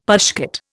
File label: "pushcart", Indian; Dictionary